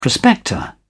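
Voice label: "prospector", British English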